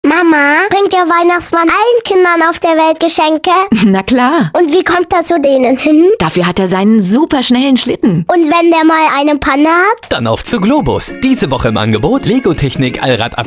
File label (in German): Werbung; GLOBUS